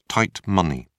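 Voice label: "tight money", British English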